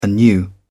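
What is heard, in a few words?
"anew", British English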